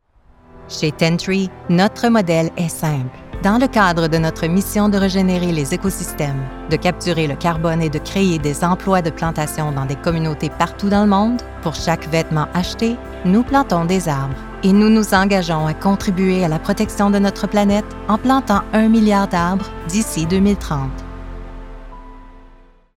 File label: Corporate, French; Tentree